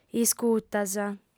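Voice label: "iscutas", Sardinian, female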